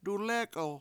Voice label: "cooking", Washo; dulEk$1l